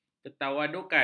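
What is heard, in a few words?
"ketawadukan", Malay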